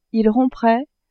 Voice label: "ils rompraient", French

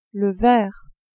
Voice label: "verre", French; cuisine

